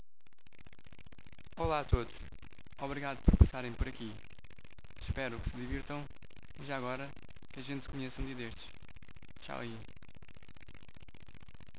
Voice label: portuguese voice